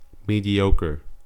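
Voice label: "mediocre", American English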